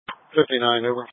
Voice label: Police Patrol 1 (Dispatch)